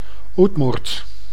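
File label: "Udmurt", Dutch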